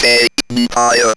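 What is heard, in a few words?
ROBVOX, robot voice; E-Lab; X Static Goldmine 1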